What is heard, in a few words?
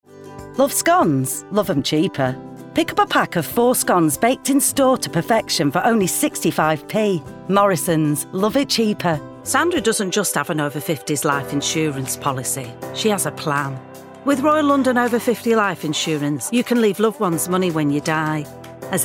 Commercial